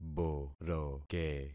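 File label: "boroke", concatenated syllables; Affixing experiment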